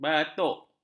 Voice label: "batuk", ms